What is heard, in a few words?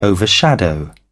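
"overshadow", English, British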